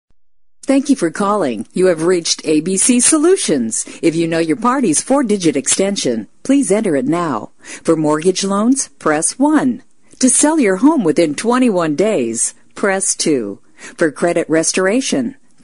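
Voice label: English American female voice Prompts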